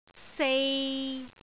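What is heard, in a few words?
"sei3", Cantonese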